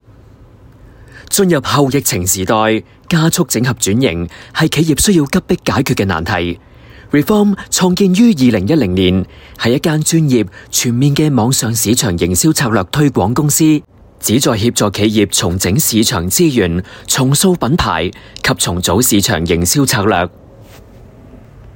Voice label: Cantonese